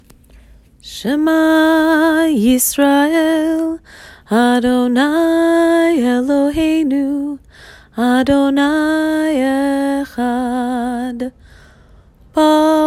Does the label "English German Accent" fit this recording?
No